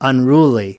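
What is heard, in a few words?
"unruly", English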